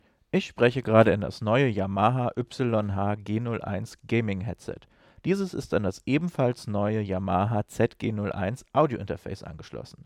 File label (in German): yamaha zg01 klangbeispiel ohne effekte